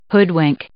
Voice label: "hoodwink", English

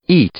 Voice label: "eat", English; verb; i-ɪ

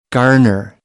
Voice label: "garner", American; AmericanBritish

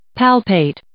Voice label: "palpate", English

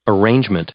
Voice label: "arrangement", English; noun

